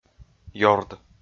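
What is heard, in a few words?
"ёрд", Komi, male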